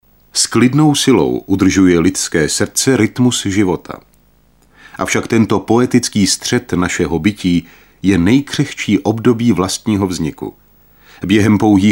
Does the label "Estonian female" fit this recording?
No